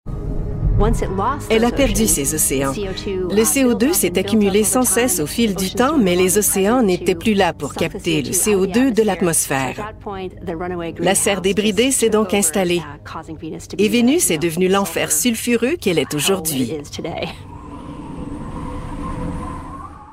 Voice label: french canadian documentaries